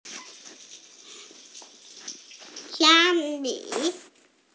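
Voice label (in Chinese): "xia1mi5", Mandarin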